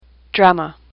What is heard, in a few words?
"drama", English; AWL